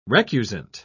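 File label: "recusant", British English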